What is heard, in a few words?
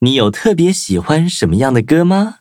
levelup zh-hant; cookie run